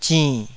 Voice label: "zinn3", Taiwanese syllable